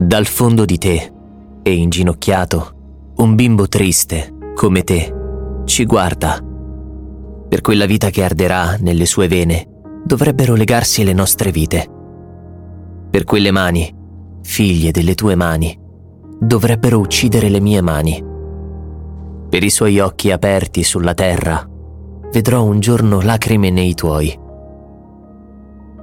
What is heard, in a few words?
italian voice over male audiobook